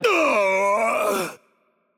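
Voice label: Death, Brazilian Portuguese; bloom minigame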